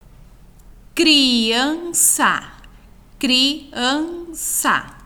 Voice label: voz crianca

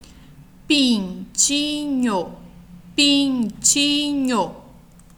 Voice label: voz pintinho

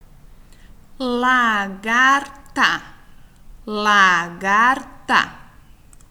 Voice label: voz lagarta

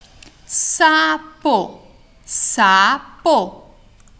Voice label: voz sapo

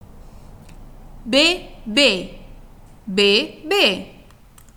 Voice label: voz bebe

